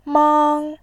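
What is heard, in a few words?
"mang", Mandarin Chinese, first tone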